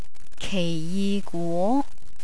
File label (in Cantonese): kei ji gwo, Cantonese, fruit